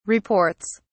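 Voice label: "reports", British English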